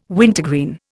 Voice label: "wintergreen", Indian